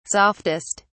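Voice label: "softest", British English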